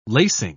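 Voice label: "lacing", British English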